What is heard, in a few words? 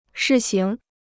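"试行", Chinese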